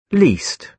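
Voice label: "least", British English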